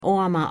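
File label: "aarmer", Pennsylvania Dutch; ar (before consonant)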